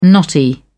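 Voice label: "knotty", English, British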